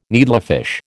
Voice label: "needlefish", American